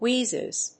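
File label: "wheezes", English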